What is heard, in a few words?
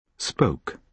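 "spoke", British English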